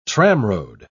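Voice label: "tramroad", British English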